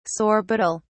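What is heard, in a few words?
"sorbitol", British English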